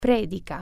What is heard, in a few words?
sermon, italian